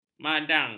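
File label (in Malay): "madang", Malay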